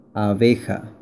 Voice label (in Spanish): bee, spanish